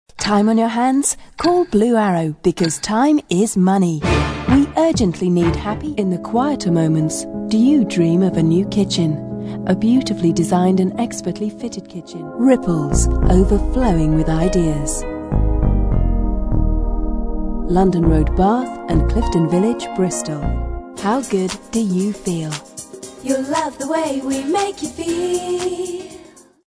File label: commercials, voiceover